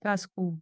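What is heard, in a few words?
"casco", Occitan Gascon; Prêts pour l’Òc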